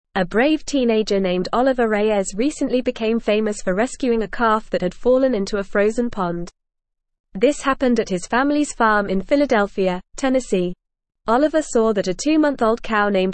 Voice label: English Newsroom Upper Intermediate FAST Reading; Teenager Rescues Longhorn Calf from Frozen Pond

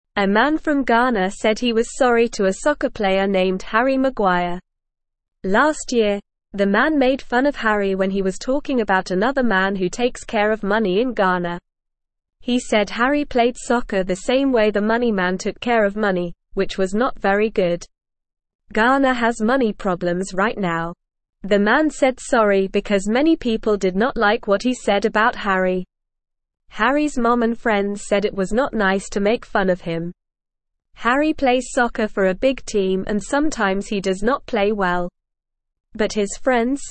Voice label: English Newsroom Beginner NORMAL Reading; Man Apologizes to Harry Maguire for Making Fun

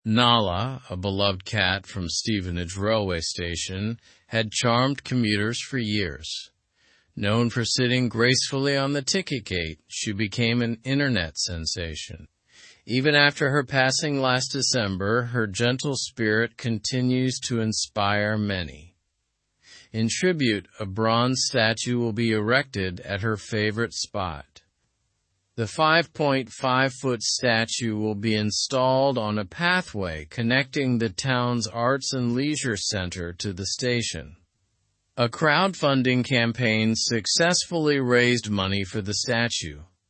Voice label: english through news upper intermediate slow; nala s legacy a bronze statue tribute

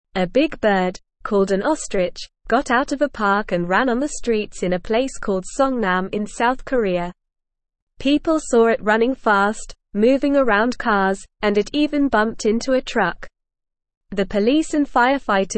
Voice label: English Beginner NORMAL Reading; English Newsroom; Ostrich Runs Loose in City Causes Chaos

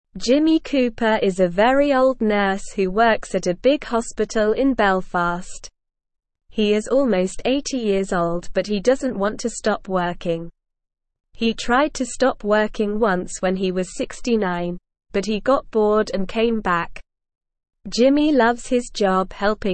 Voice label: English Newsroom Beginner SLOW Reading; Jimmy Cooper The Very Old Nurse Who Keeps Working

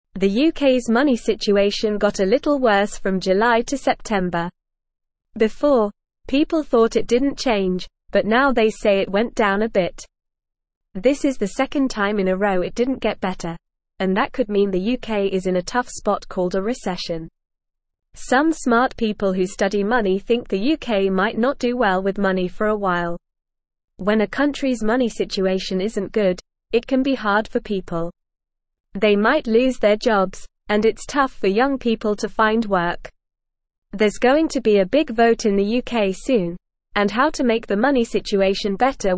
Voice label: English Lower Intermediate NORMAL Reading; UK Money Goes Down Worries About Jobs; English Newsroom

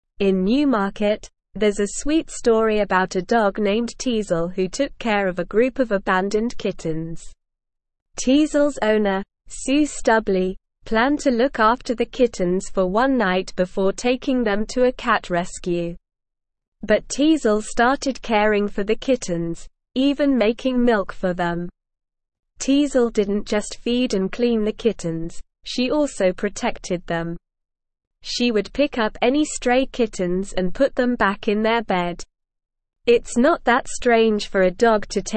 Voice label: English Upper Intermediate SLOW Reading; Dog Becomes Surrogate Mother to Abandoned Kittens; English Newsroom

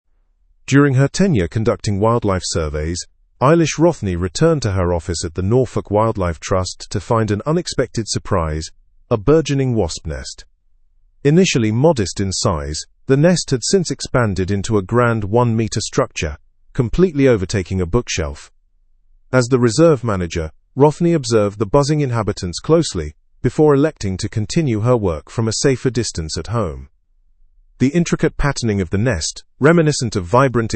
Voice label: learn english through news advanced fast; norfolk wildlife office overtaken by wasp nest

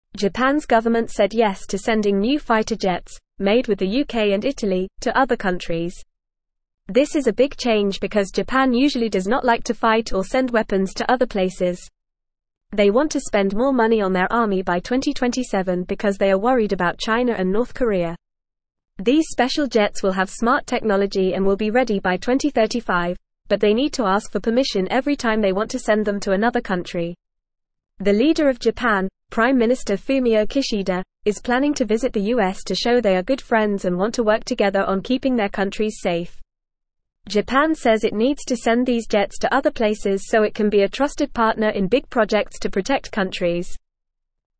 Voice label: English Lower Intermediate FAST Reading; Japan Sends Fighter Jets to Keep Peace with Other C

